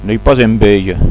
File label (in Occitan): "enveja", Gascon